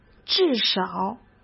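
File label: "至少", Mandarin Chinese; chinese-flashcards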